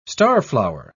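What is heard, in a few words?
"starflower", British English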